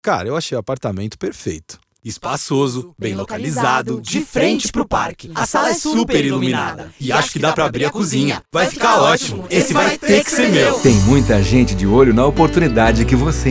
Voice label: spot crédito imobiliário; ITAU

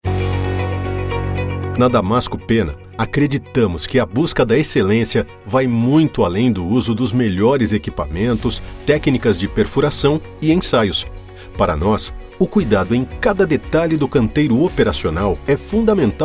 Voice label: Institucional

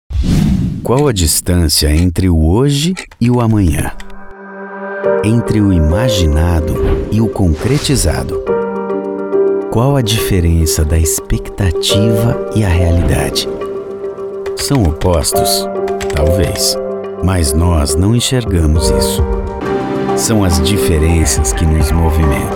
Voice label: locutor; CLUBE DA VOZ

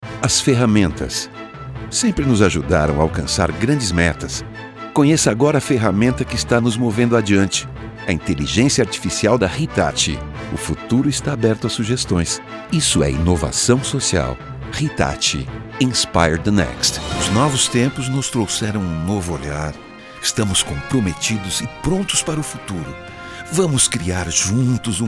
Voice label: repertorio institucionais Portugues

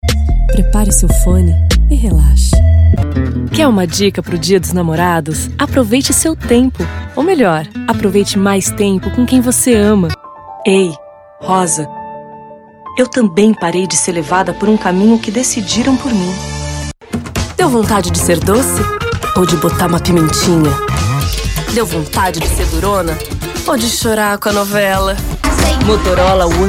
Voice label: locucao sensual

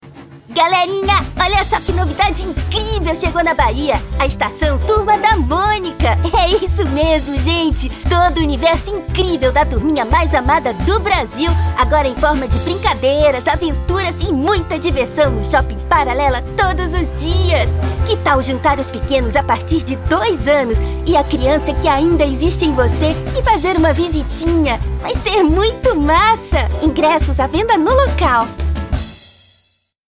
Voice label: VOZ DE CRIANCA; Turma da Monica

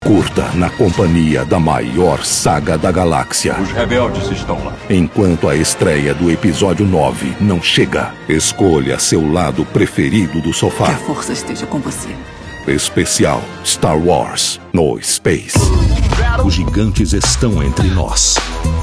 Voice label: TRAILER - CHAMADAS

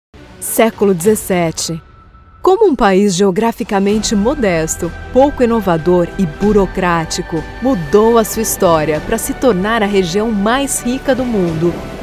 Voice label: Institucional Manifesto Empoderado

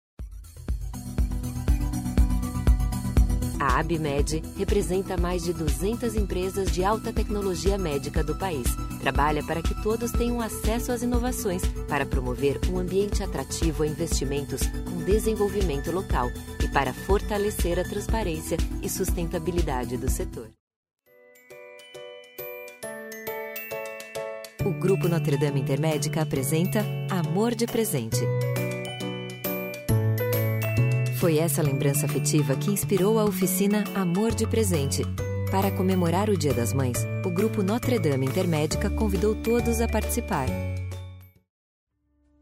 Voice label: institucionais area de saude

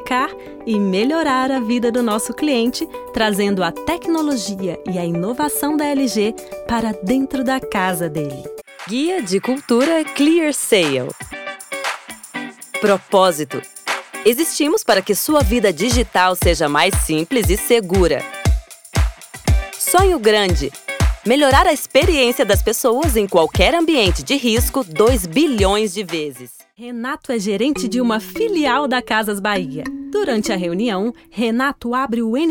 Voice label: Video Treinamento

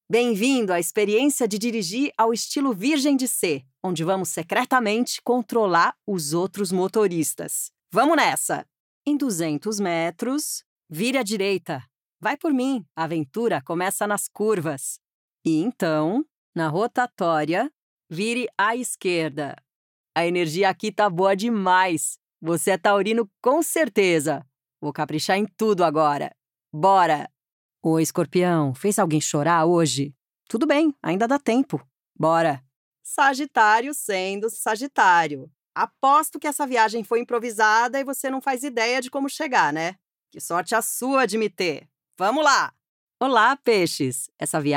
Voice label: Waze Zodíaco humor

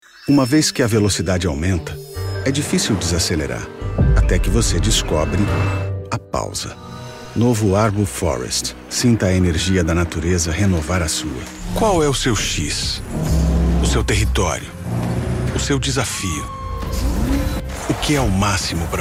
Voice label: Demo Publicidade

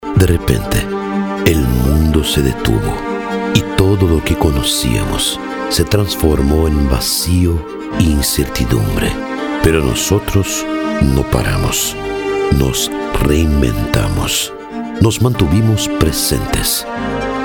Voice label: Espanhol

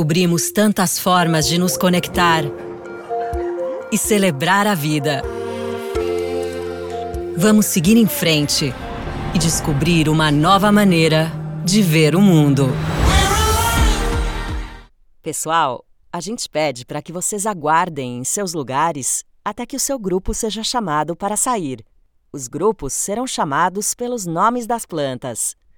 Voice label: tecnologia elegante sofisticada

